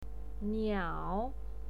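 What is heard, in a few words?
"niao" third tone, pinyin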